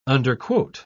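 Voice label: "underquote", English, British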